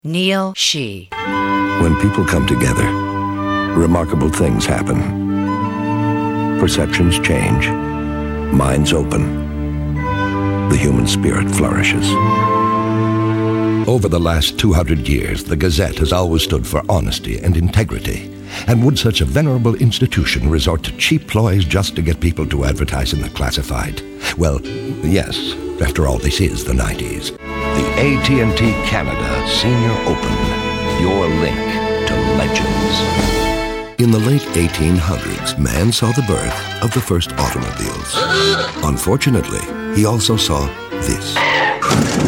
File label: commercial male